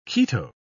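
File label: "keto", British English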